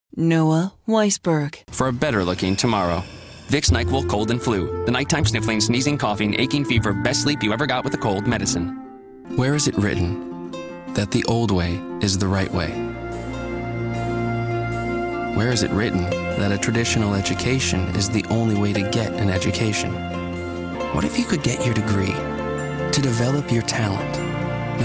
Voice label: commercial, men

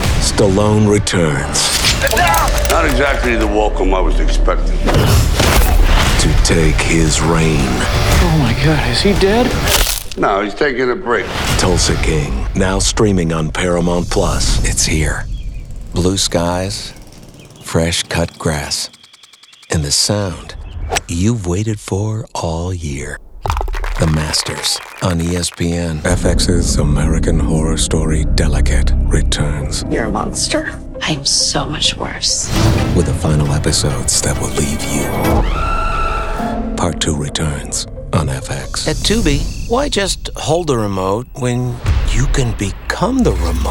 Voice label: promo men

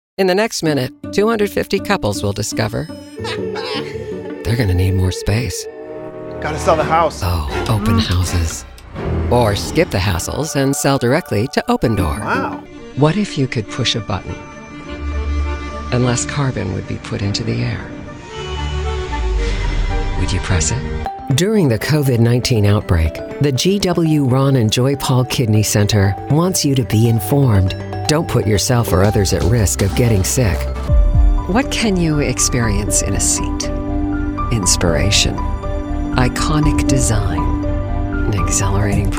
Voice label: commercial women

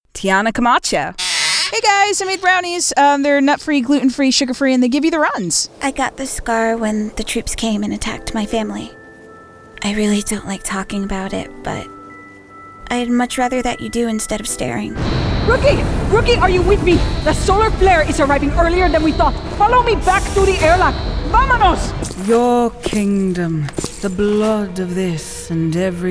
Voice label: animation women

